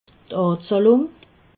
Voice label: "azahlung", alsace

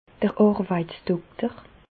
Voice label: "arweitsdokter", Bas Rhin; medecine; médecin du travail

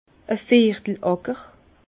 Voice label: "viertel acker", Bas Rhin; vin

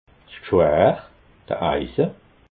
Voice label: "gschwar", alsace

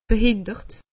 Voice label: "behindert", alsace; medecine

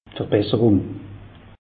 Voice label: "verbesserung", alsace; meteo

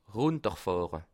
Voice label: "runterfahre", alsace

